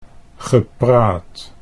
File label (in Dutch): "gepraat", Dutch